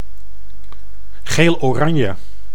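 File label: "geeloranje", Dutch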